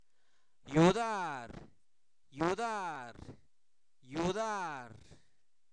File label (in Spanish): ayuda, Zapoteco, santo domingo albarradas; INALI; sustantivos